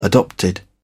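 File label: "adopted", British English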